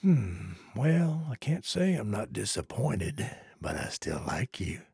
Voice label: trader male like quest declined